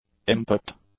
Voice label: "impact", English; nouns